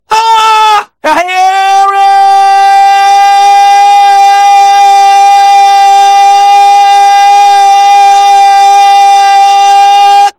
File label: scout battle cry